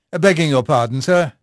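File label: English male; Pirates Of The Caribbean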